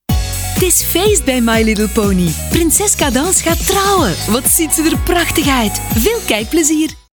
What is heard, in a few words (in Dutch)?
kids; Kinder; Cadance